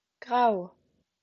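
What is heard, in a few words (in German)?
"grau", German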